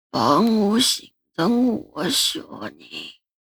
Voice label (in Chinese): 老人喂食